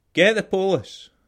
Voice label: police scots

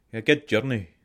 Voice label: bon voyage scots

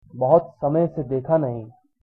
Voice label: long time no see hindi

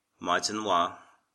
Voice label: good morning, irish

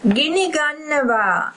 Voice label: fire, sinhala

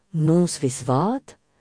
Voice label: good evening, Breton; Nozvezh vat

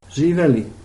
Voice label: cheers, serbian

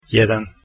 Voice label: "1", Croatian

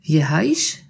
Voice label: name, alsatian

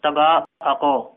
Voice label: I'm from, Tagalog

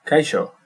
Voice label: "hello", Basque

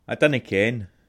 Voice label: i dont know scots; A dinnae ken

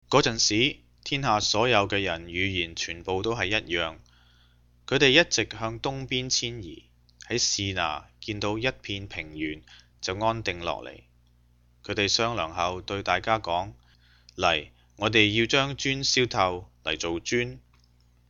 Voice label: cantonese

